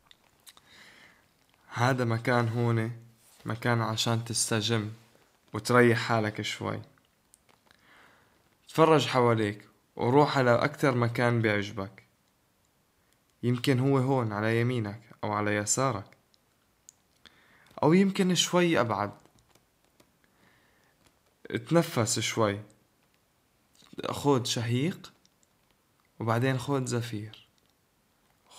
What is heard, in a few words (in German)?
Blumenpracht arabisch Spielidee; nienburg; blumenpracht im stadtteilpark